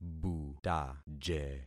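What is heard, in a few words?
"butaje", concatenated syllables; Affixing experiment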